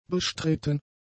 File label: "bestritten", German; adjectives